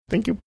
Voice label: cute thank you